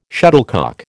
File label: "shuttlecock", American